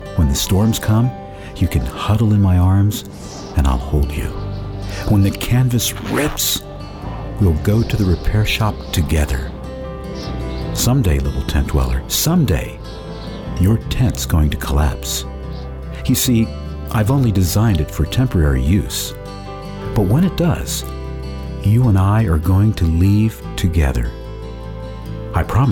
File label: english american